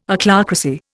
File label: "Ochlocracy", Indian